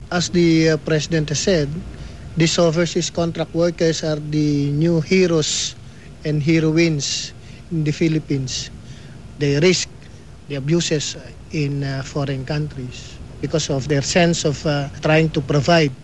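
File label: ESL (Philippines male)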